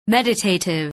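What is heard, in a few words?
"meditative", English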